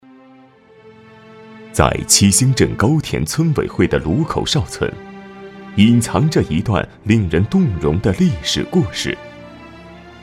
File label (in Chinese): 男 纪录片 温情讲述; 鲁口哨村